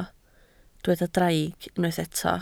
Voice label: "draig", Welsh